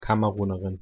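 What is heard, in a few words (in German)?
"kamerunerin", German; pronunciation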